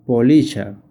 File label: Moth spanish